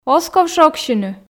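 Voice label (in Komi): оз ков шогсьыны, Komi, female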